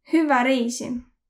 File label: bon voyage, Võro